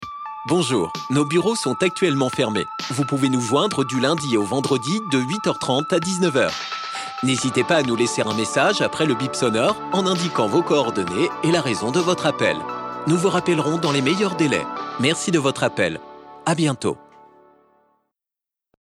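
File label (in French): voix off message accueil telephonique homme; Studio Com'un Son; SPOTS RADIOS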